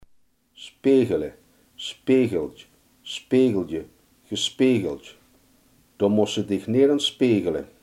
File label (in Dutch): "spiegelen", Limburgs